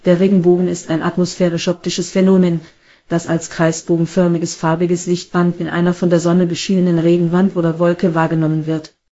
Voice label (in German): speaker, de DE; piper-voices